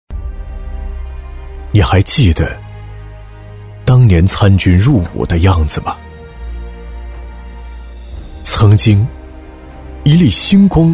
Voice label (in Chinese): 男, 军队旁白, 走心 低沉, 大气主流 质感磁性; 八一建军节视频旁白